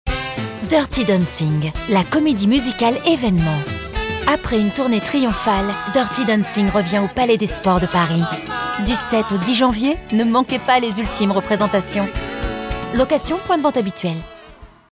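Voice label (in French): Spot TV promo, voix off féminine; Dirty dancing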